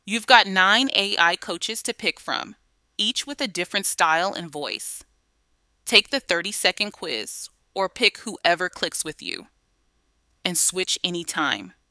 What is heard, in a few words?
tour narration; ai coaches